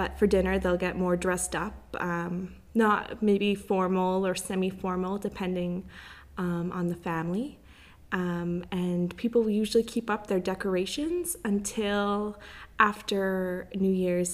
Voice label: Christmas canada english